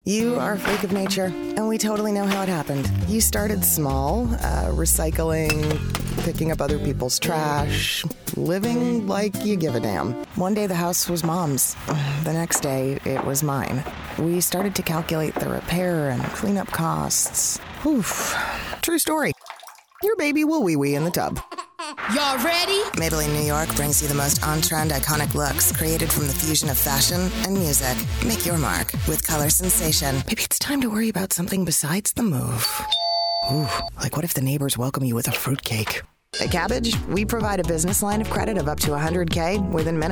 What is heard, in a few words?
commercial